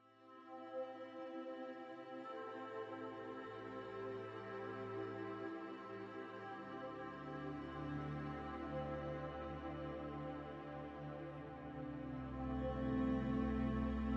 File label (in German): Herzkraft Meditation fuer dein Business